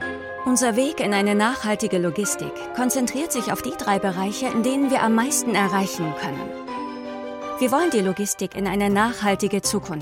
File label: german corporate videos